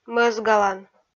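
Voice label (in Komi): "мӧсгалан", Komi, female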